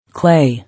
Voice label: "Clay", English